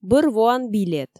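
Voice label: Komi, female; бӧр воан билет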